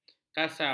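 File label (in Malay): "kasam", Malay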